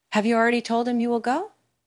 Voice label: Voice Acting es